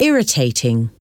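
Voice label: "irritating", English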